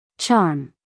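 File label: "charm", American English, female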